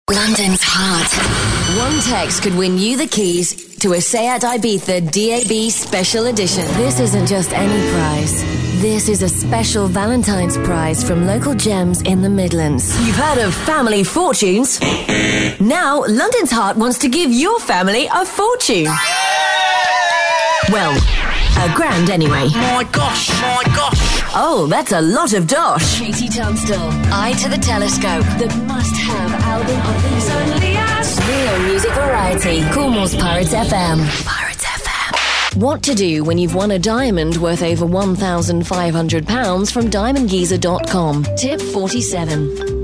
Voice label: promo imaging